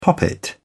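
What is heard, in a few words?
"poppet", British English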